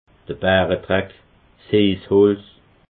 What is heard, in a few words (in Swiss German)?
"baredreck", alsace